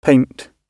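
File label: "paint", British English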